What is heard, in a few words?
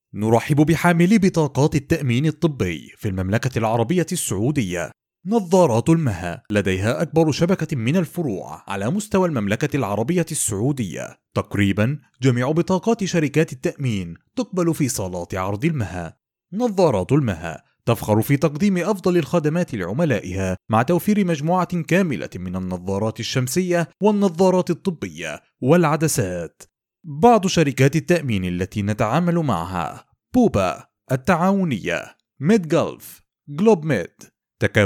Arabic Narration Voice Overs